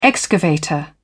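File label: "excavator", British English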